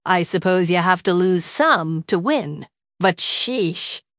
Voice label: upset; fertig classic games